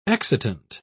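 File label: "excitant", British English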